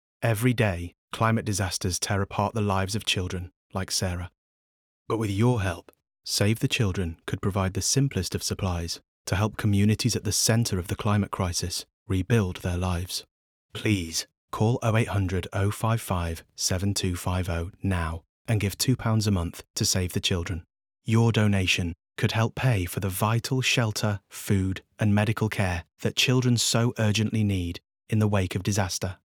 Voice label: male english northern accent appeal; save the children